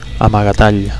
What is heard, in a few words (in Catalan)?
"amagatall", Catalan